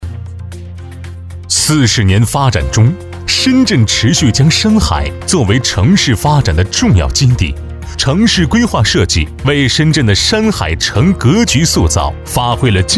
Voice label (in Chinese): 男 专题 工程建设类; 四十年发展中